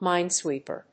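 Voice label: "minesweeper", English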